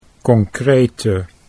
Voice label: "concrete", Dutch